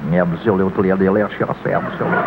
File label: absodloosylala, gibbrish; Voice